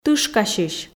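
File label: "тышкасьысь", Komi, female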